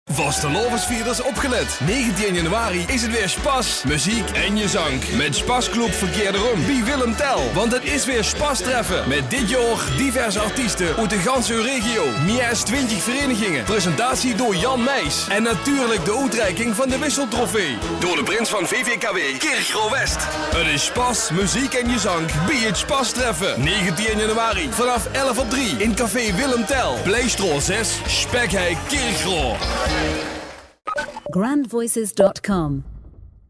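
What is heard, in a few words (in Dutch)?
accent male LIMBURGS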